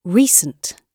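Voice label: "recent", British English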